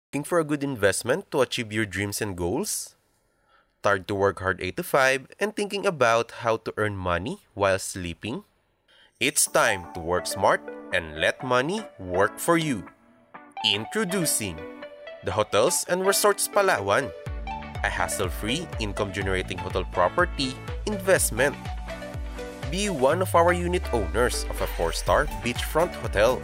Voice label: English (Asian), Male